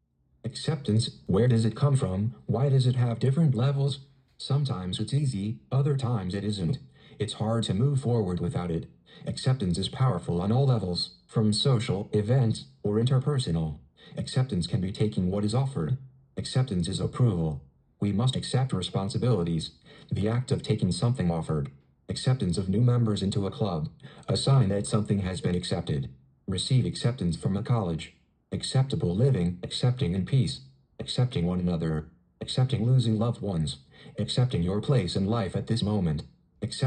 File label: spoken word